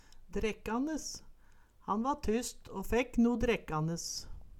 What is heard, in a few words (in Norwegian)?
"drekkanes", Numedalsmål